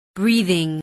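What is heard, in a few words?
"breathing", English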